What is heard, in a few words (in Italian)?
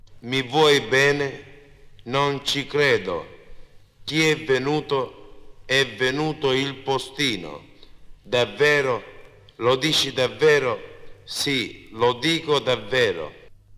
Intonazione Catania